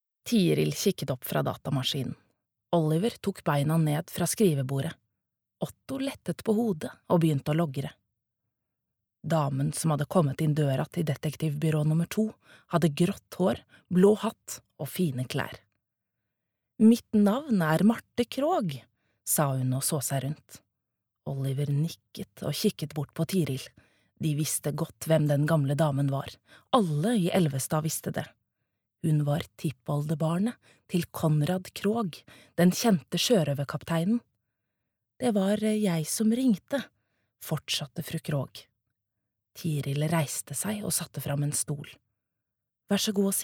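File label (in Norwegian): fortellende, Norwegian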